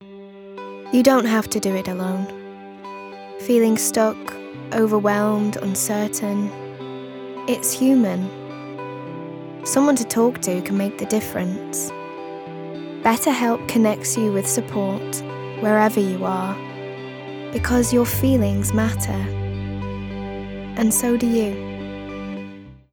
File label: Manchester, Natural, Conversational, Warm, Friendly, Informative; Better Help